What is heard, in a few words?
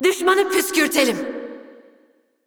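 voice over, Turkish; Irelia; bloom minigame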